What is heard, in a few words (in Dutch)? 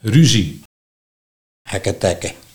"Ruzie - Hakketak", Nieuw lekkerlands dialect